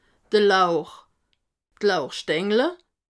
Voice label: "lauch", alsace

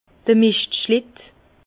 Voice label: "mischtschlitte", Bas Rhin; vin; traîneau de fumier

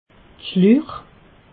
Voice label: "schluch", alsace

